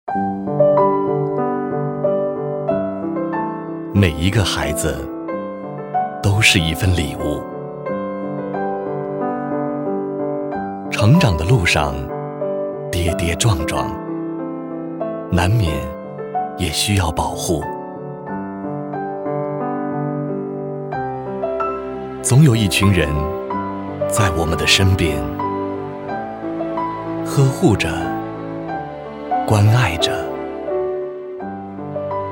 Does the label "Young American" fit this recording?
No